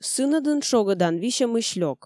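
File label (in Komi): сынӧдын шогӧдан висьӧмысь лёк, Komi, female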